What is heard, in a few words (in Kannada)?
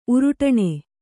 "ಉರುಟಾಣಿ", Kannada